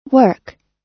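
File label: "work", US English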